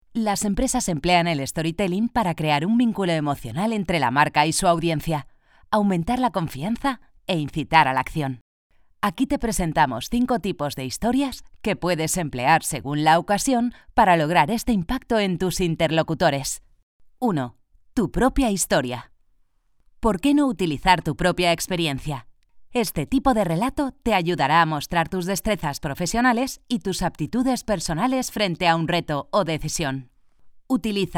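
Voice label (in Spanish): e-learning, Spain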